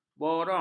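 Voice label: "borong", Malay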